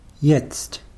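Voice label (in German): "jetzt", German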